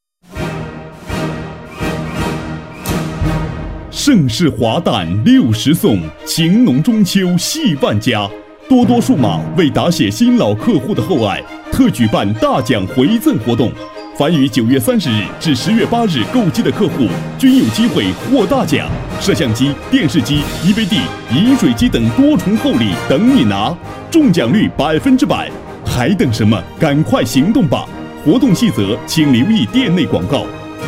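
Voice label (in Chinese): 促销广告配音, 男声配音, 激情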